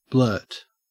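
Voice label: "blurt", English, Australian accent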